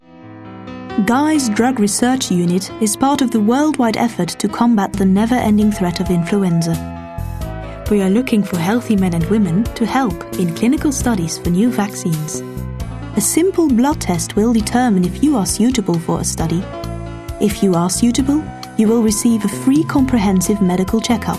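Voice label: Flemish English; Flemish, Male, Home Studio, 30s-50s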